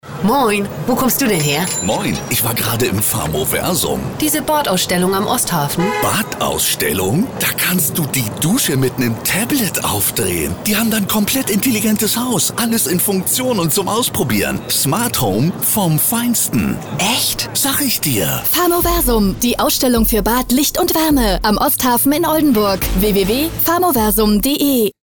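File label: female, excited; Fremdtake; Aufgeregt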